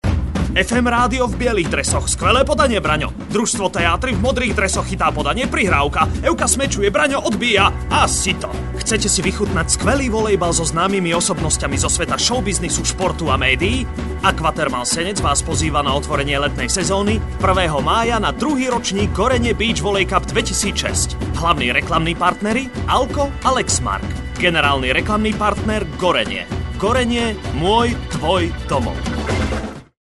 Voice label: radio spot fm radio